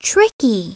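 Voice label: "tricky", English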